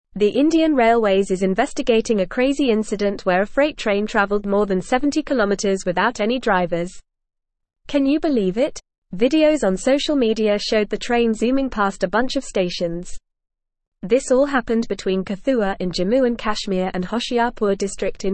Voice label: English Upper Intermediate FAST Reading; Indian Railways Investigates Freight Train Traveling Without Drivers; The Indian Railways is investigating a crazy incident where a freight train traveled more